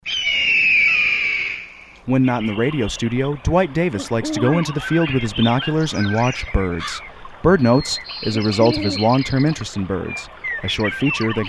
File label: Promo; Bird Notes